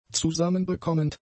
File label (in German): "zusammenbekommend", German; adjectives